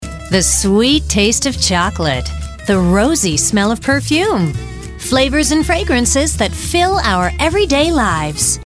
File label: Corporate Narration; Chocolate